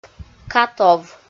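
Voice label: "катов", Komi, female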